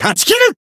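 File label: finish, Japanese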